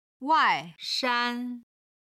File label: "wai4 shan1", Chinese